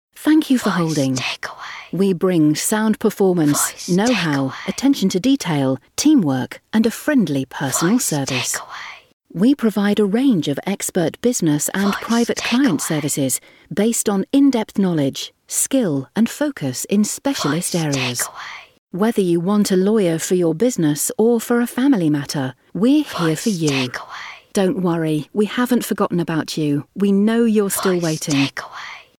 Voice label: Solicitors Smooth Female